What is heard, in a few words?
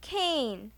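"cane", English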